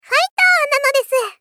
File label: Moe Cheering "Fight nanodesu"; Rei Yumesaki